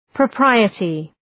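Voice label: "propriety", English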